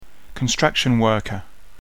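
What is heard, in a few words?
"construction worker", English; jobs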